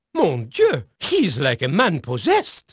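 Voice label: French male, voice; Pirates Of The Caribbean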